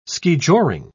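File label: "skijoring", British English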